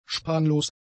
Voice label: "spanlos", german; adjectives

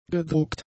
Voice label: "gedruckt", German; adjectives

